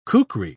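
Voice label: "kukri", British English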